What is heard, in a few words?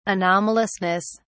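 "anomalousness", British English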